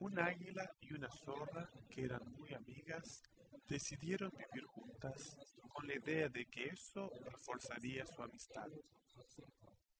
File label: male inst sim; SASSEC07